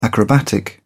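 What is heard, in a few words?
"acrobatic", British English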